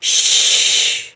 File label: Horse Calm Adult; Duviri